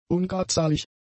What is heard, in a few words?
"ungradzahlig", German; adjectives